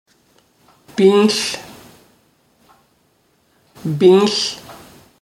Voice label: Dene word final; Phonetics